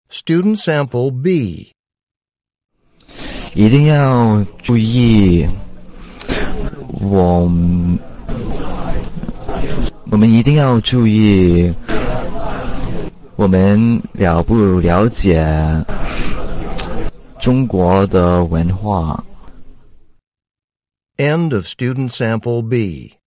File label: chinese conversation